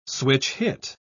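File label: "switch-hit", British English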